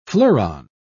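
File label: "fleuron", British English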